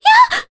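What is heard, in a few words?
Vox, voices, Japanese; Ophelia; kings raid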